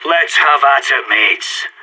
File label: pep talk, radio; SAS